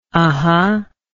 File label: "uhhuh", English